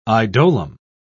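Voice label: "idolum", English, British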